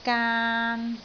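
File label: "gaan3", Cantonese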